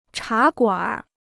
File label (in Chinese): "茶馆儿", Chinese